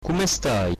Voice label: how are you, corsican